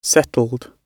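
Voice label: "settled", British English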